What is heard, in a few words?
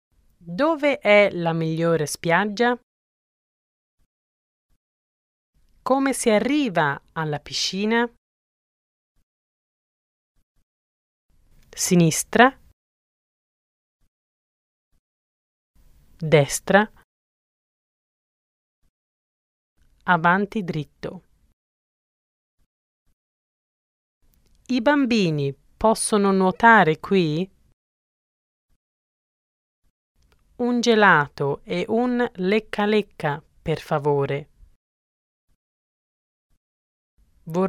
Italian